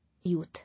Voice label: "Youth" English